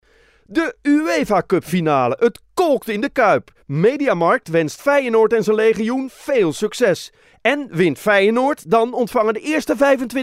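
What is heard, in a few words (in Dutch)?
Commercials, Voice-over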